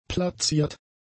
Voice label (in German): "plaziert", German; adjectives